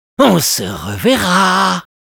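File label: Spy taunts, French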